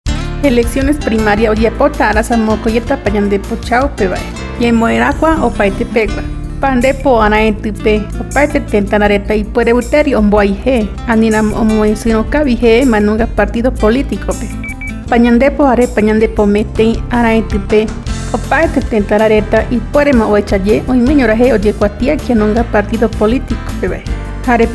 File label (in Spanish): padron guarani; cunia